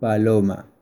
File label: Dove spanish